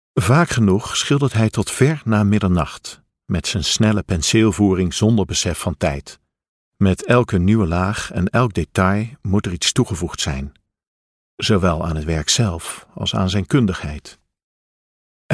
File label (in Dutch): Luisterboek